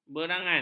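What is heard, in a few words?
"berangan", Malay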